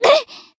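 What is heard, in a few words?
Voice damaged, Japanese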